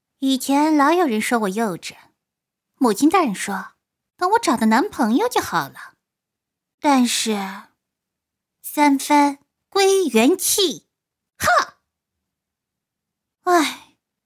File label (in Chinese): 少女; 小铃铛